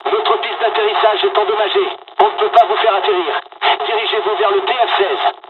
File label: dialog, dialogs french; War Thunder